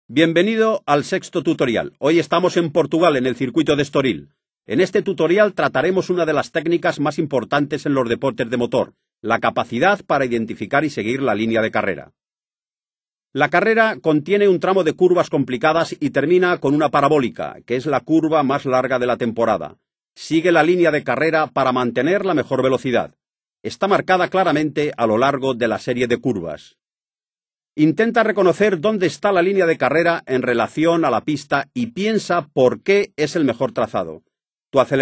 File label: racingline spanish; MotoGP 3